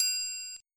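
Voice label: "foot", English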